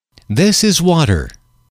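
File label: "this is water", English, male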